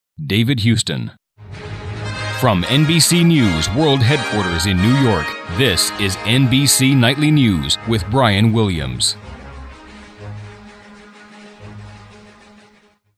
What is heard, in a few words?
Nightly News Open; NBC; Auditions